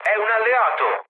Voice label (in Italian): player friendly fire, mood low, speech it; War Thunder